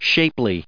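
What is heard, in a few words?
"shapely", US English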